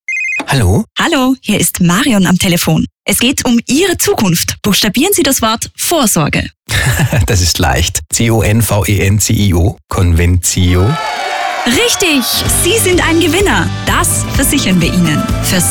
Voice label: SPOT; Convencio 2020